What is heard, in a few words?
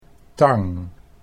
"tang", Dutch